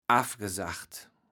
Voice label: "affgesagt", kaevels platt

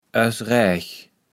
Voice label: "oersraech", kaevels platt

